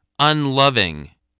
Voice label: "unloving", English spelling voice

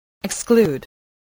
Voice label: "exclude", voice, english

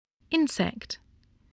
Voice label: "insect", voice

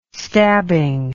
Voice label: "stabbing", English

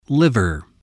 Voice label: "liver", spelling

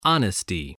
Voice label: "honesty", voice spelling

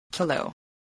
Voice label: "kilo", English

